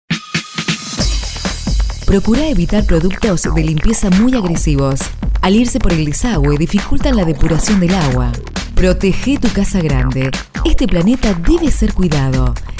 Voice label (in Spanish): medioambiente fem